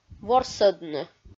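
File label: "ворсӧдны", Komi, female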